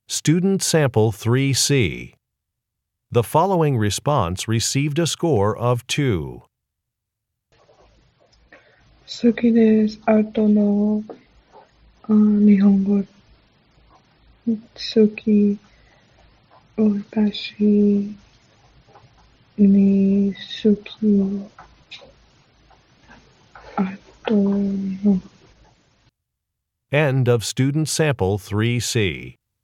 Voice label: Japanese conversation